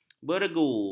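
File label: "beregu", Malay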